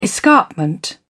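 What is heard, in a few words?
"escarpment", British English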